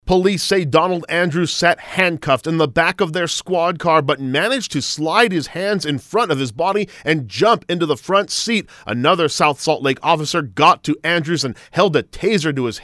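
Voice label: voice public service announcement; SUSPECT PATROL CAR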